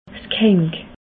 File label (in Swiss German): "ghank", alsace